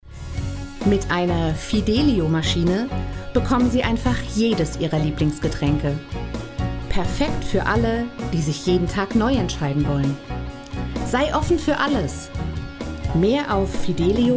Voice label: Werbung; Fidelio

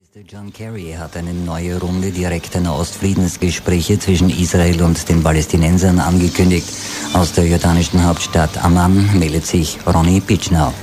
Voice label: Nachrichten

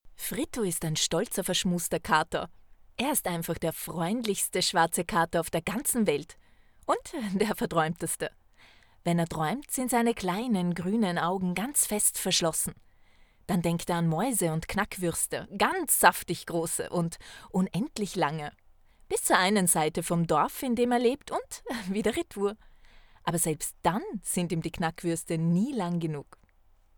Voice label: erzählend

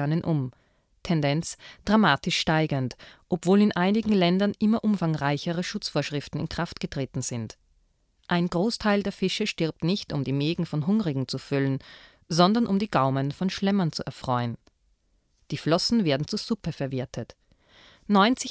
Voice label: Doku Haie

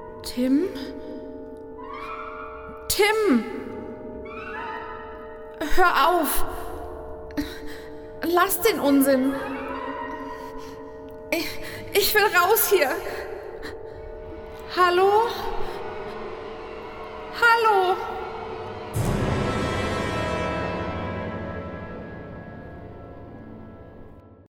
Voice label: Stimmprobe Angst

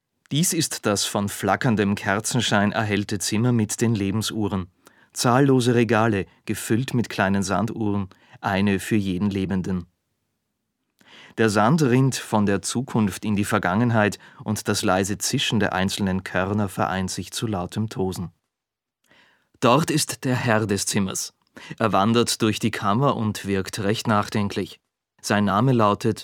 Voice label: Hörbuch Roman

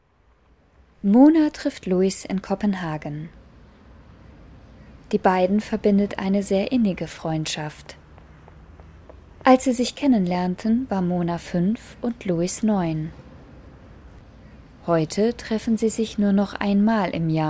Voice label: Kommentar zu Reportage

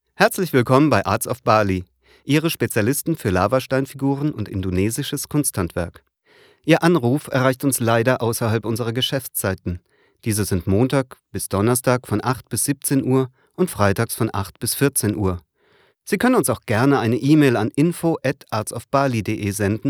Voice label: Telefonansage; Demo Telefonansage

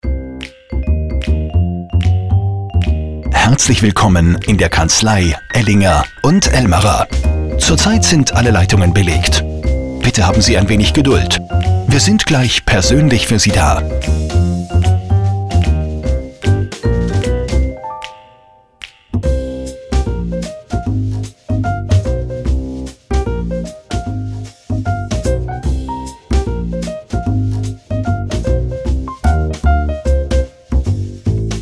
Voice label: Ansage besetzt

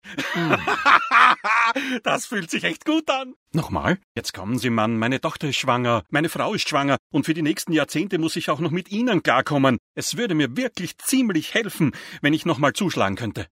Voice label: Serien Synchro

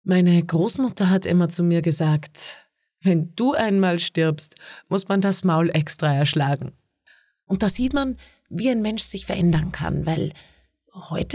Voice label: hoerbuch

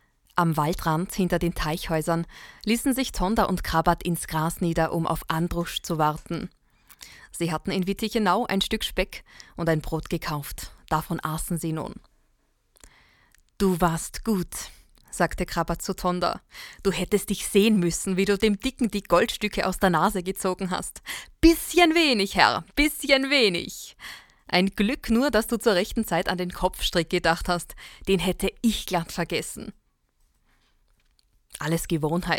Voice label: hörbuch

